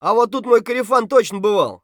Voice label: scav mutter, voice; Escape from Tarkov; scav3